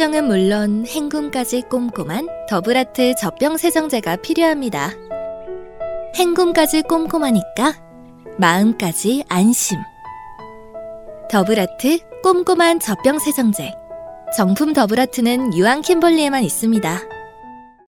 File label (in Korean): 광고 포근한 부드러운 차분한 편안한 따뜻한 상냥한 친절한 엄마 느낌